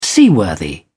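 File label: "seaworthy", British English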